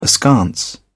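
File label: "askance", English, British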